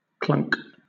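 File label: "clunk", British English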